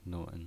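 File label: "nun" third tone, pinyin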